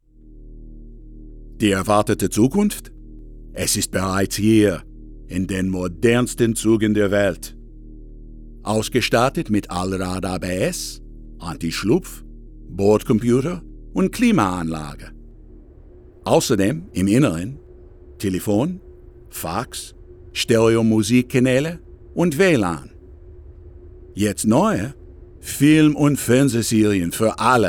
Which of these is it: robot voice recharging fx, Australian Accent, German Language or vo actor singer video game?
German Language